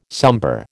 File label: "sombre", American